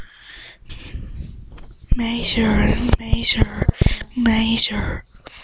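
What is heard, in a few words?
"major", spoken word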